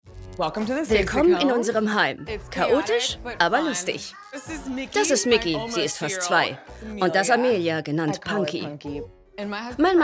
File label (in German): VOICE OVER